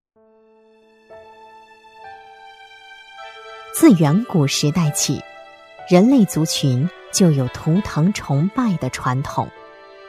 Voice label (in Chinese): 女 纪录片 大气自然; 脸谱由来